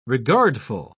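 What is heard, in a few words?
"regardful", British English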